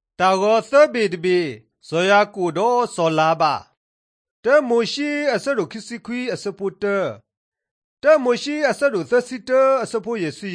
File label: drama, karen sgaw; mighty men of god; bible